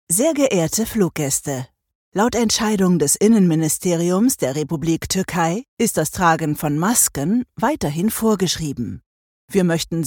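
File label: german interactive voice response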